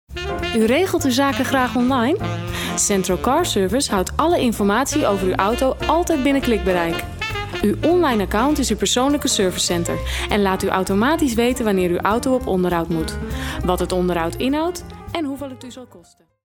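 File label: Dutch, female, commercial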